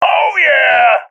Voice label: cheer, seal epic; Counter Strike Model Pack; CS:GO